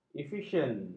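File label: "efisien", Malay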